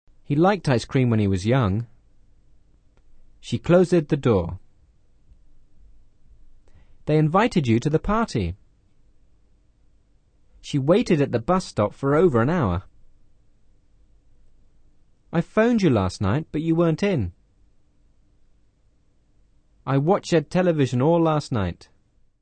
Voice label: Spot the errors past simple incorrect pronunciation